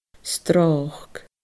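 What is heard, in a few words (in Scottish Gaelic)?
"stròc", Scottish Gaelic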